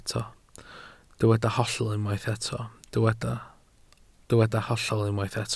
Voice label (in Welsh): "hollol" Welsh